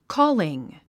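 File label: "calling", English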